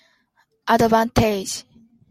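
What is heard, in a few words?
"advantage", Japanese, female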